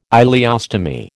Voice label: "ileostomy", American